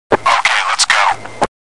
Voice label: ok lets go sound effect, radio commands; counter strike